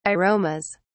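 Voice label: "aromas", British English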